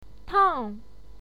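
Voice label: "tang", Mandarin Chinese, fourth tone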